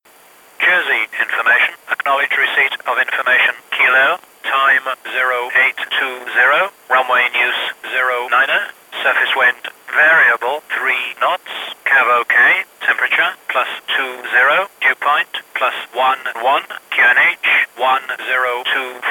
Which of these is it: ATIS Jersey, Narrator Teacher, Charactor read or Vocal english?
ATIS Jersey